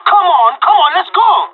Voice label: radio lets go, pirate